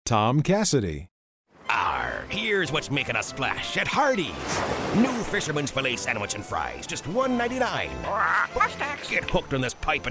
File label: pirate